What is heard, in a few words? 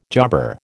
"jobber", American